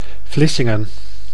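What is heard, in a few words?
"Vlissingen", Dutch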